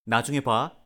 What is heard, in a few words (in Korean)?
"나중에 봐", Korean; goodbye in korean